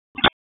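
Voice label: Police Northeast District Dispatch, Raleigh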